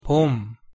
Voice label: "봄", Korean; pronunciation